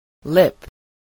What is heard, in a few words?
"lip", spelling